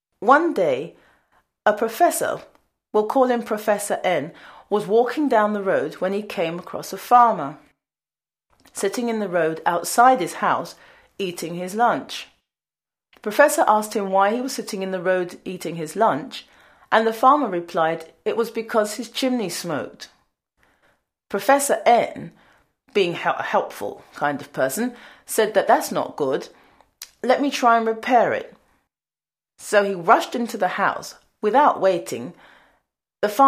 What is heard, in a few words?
narration woman; The smoking chimney